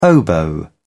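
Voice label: "oboe", British English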